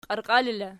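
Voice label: "qiarqiali" locative, Archi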